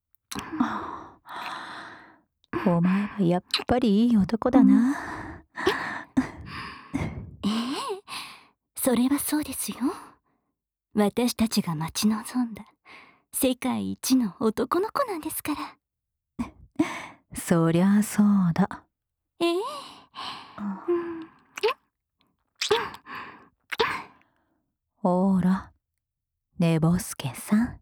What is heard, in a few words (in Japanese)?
目覚ましアラーム音声